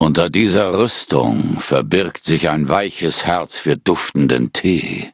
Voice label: loading, de; Cookie Run

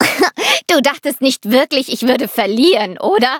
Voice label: victory, de; cookie run

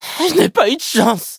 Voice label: hit, fr

